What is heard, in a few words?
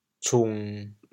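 "cung1", Teochew, chaoyang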